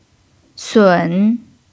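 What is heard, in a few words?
"sǔn", Mandarin Chinese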